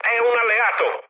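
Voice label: Italian player friendly fire mood med, speech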